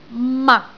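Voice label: "mak6", Cantonese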